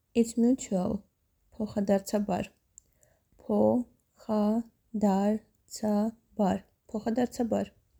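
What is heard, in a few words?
English "Its mutual", Armenian "Pokhadardzabar"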